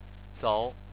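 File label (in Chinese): "zou", Chinese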